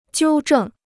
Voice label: "纠正", Chinese

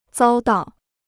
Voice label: "遭到", Chinese

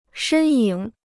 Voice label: "身影", Chinese

